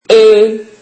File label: turkish alphabet ou